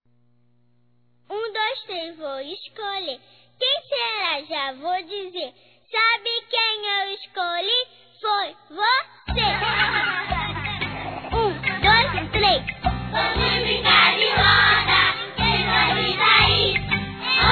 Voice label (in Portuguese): Aniversário Filho Masculino, Telemensagens, Aniversário Infantil; Telemensagens 2017; Aniversários